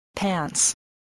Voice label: "pants", English voice